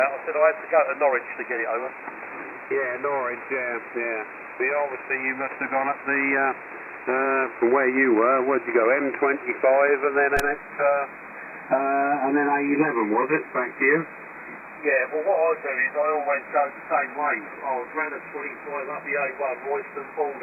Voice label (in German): Amateurfunk LSB; 7178khz